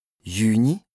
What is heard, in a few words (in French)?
"Jüni", lexique indispensable bas rhin, Alsace; juin